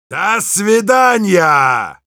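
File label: thanks, Russian; «До свидания!»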